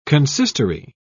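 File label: "consistory", English, British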